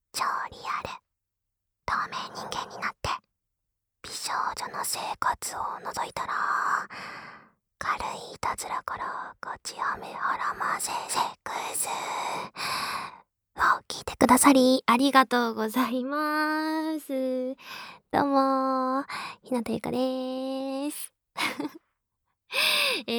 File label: FreeTalk, ASMR